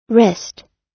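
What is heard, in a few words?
"wrist", US English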